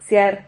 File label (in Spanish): "xiar", Silabas